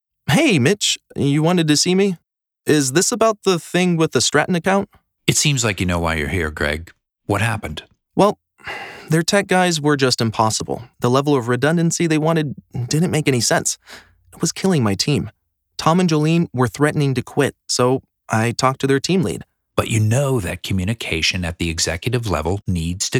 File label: eLearning Interactive